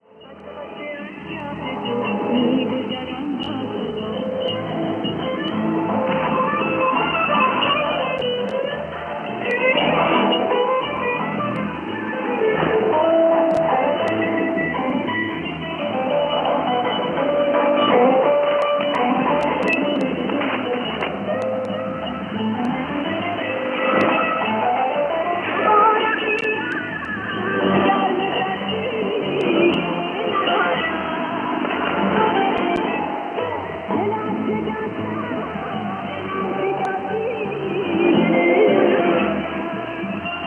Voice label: 5860kHz Radio Farda